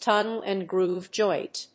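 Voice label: "tongue and groove joint", English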